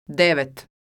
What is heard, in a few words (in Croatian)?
"devet", croatian